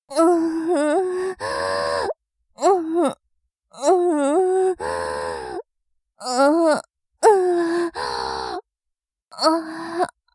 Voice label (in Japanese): ピロートーク